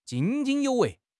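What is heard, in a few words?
"津津有味", Mandarin Chinese; HSK6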